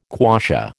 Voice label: "quassia", American